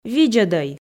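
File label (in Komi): "видзӧдӧй", female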